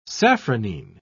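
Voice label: "safranine", British English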